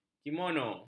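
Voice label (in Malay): "kimono", Malay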